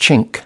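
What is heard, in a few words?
"chink", US English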